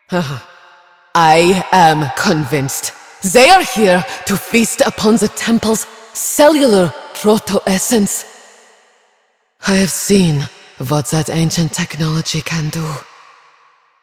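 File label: Female; Warframe; Cetus Invasion; Villagers; en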